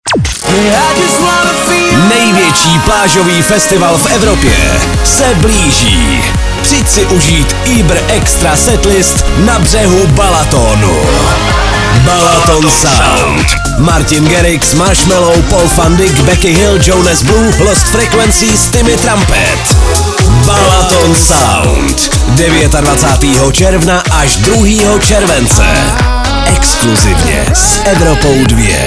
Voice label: promo; BALATON SOUND otevírá po 3 letech své brány a Evropa 2 přináší soutěž o vstupenky